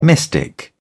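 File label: "mystic", British English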